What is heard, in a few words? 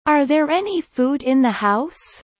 low